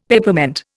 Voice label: "Peppermint", Indian; Dictionary